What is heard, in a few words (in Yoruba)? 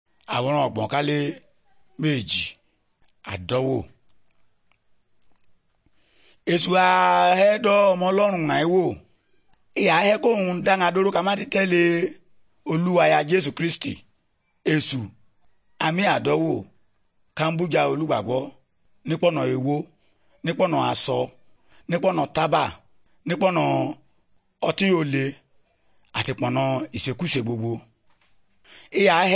good news yoruba, bible